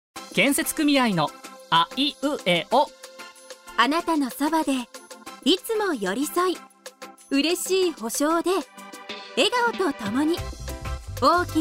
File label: radio; osaka